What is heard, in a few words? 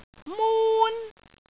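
"mun1", Cantonese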